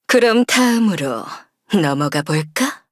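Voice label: Victory, Korean; Sigrid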